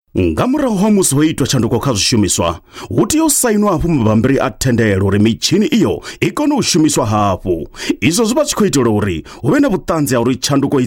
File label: Venda, Male